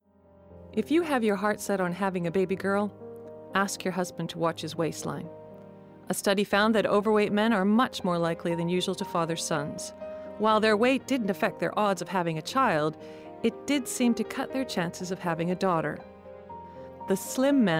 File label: corporate, voiceover